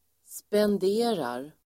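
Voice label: "spenderar", Swedish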